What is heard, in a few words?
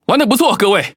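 Chinese (Taiwan) Speech Quick Commands Good Game; World Of Warships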